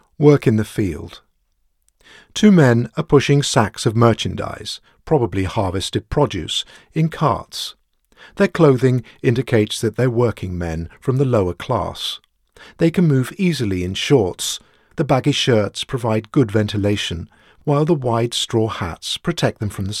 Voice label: chinese

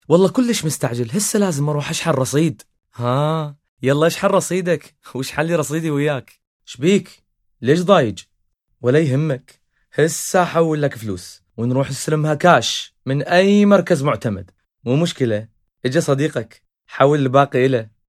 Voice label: acting

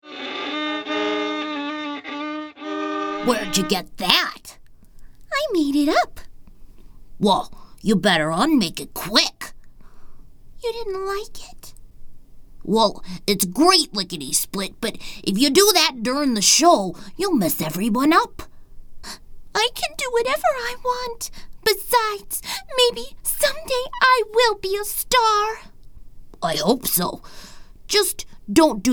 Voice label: VO Cartoon

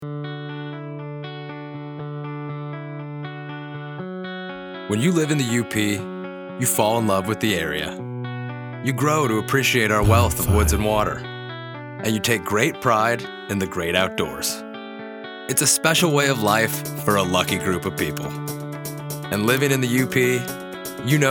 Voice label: Music VO; Internal Brand Story Music

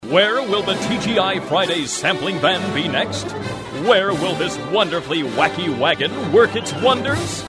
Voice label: TV Announcer; Batman

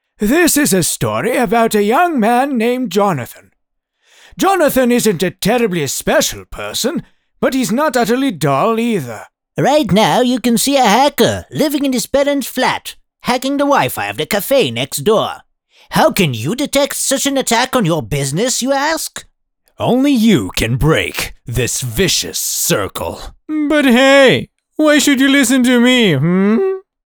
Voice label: Character Voices

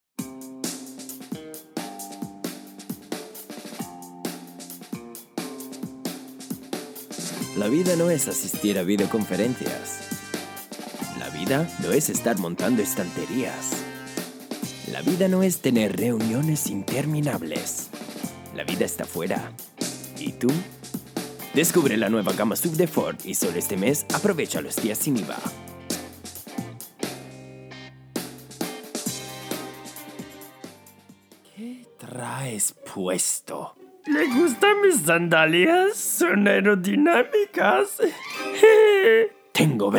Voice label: Spanish Voice; Voice Reel

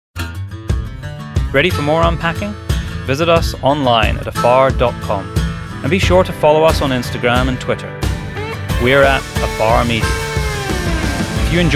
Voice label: VO and Score; Unpacked Ep II Outro

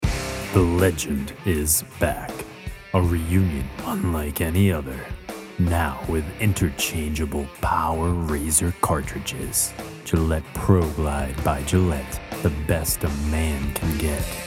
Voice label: VO Demo Commercial

